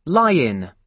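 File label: "lie-in", British English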